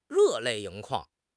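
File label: "热泪盈眶", Mandarin Chinese; HSK6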